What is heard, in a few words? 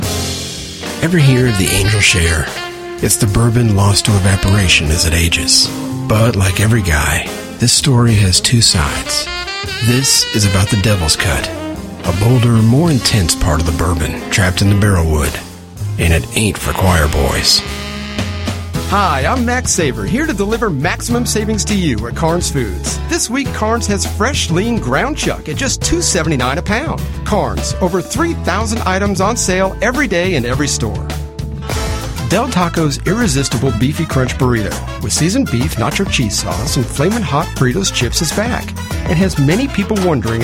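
Commercial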